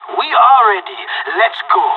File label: radio lock n load, pirate; Counter Strike Model Pack; CS:GO